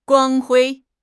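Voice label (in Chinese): "光辉", Mandarin Chinese; HSK6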